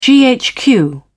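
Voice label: "ghq", British English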